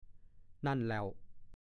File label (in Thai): "นั่นแหล่ว", ภาษาโคราช; ใช่แล้ว นั่นแหละ